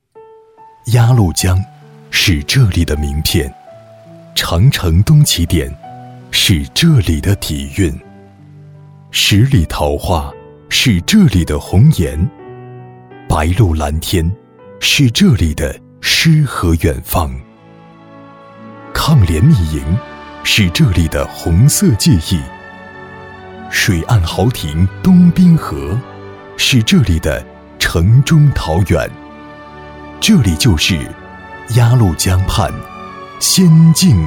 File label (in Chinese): 男 宣传片 浑厚; 鸭绿江